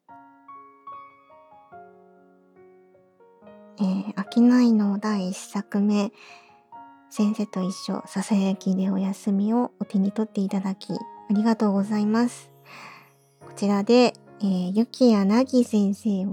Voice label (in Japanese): フリートーク, ASMR; せんせといっしょ～ささやきでおやすみ～